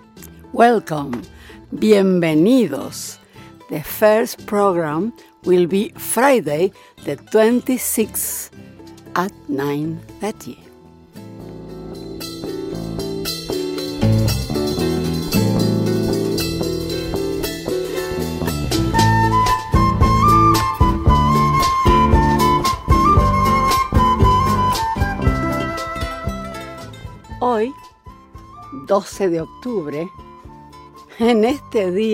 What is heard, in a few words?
studio recording english and spanish